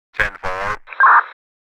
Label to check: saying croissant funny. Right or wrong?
Wrong